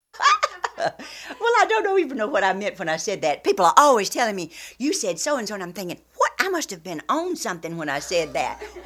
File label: Tidewater Plantation Mississippi Delta Georgia Upper Class WF age 76